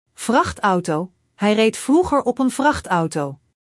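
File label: "vrachtauto", Dutch; Spelling Gr5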